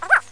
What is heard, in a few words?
"Zdes", Russian